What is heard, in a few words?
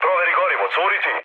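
Serbian tank damaged mood med; speech; War Thunder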